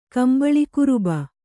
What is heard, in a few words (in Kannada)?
"ಕಂಬಳಿಕುರುಬ", kannada